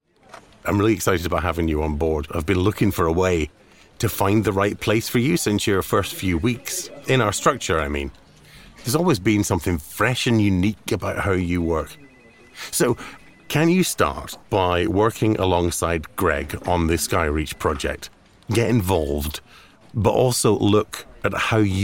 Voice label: english scottish documentaries

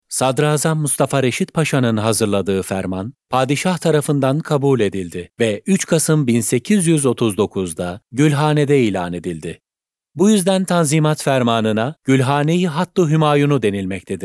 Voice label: Turkish e-learning

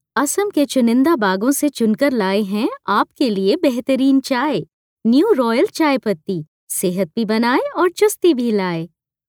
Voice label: hindi commercial

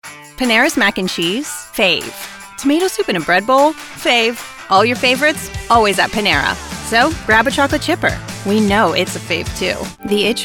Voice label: english american commercial

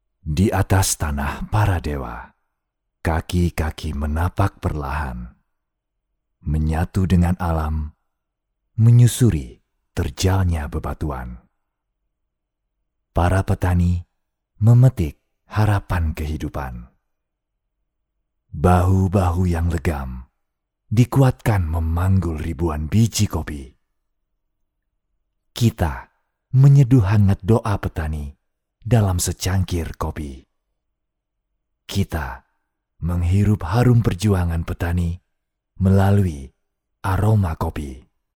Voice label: indonesian narration

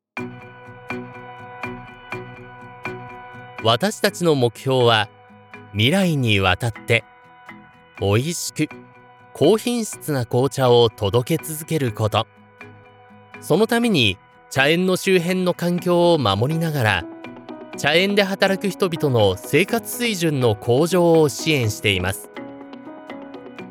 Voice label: japanese corporate videos

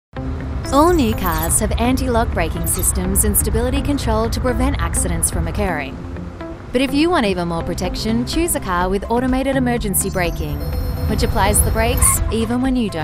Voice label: english australian e-learning

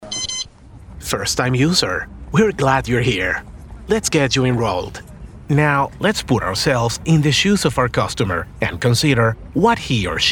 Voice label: english corporate videos

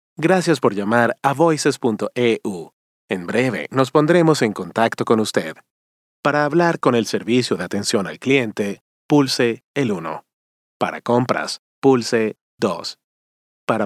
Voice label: spanish latin american ivr

